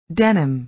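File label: "denim", American English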